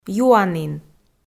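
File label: "юанін", Komi, female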